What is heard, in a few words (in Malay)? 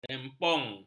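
"lempung", Malay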